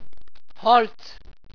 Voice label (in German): "holz", walser